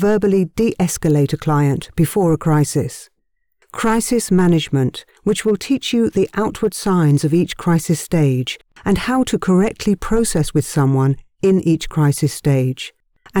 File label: E-learning Module, British, Female, Neutral Female Voice Over; Aggressive Behaviour Module